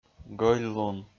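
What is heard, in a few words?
"гӧльлун", Komi-Zyrian, male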